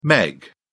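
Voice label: "meg", English, British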